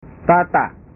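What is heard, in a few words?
"tata", Indonesian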